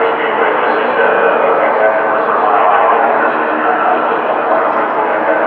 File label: announce; Portland port 1960-70s